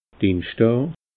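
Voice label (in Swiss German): "dienschdaa", Alsatian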